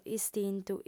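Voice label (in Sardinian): "istintu", Sardinian, female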